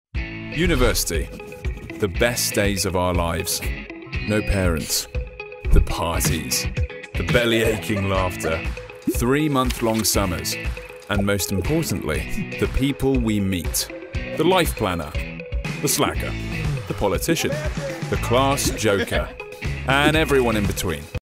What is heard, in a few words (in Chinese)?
外籍英男 轻快语调 产品广告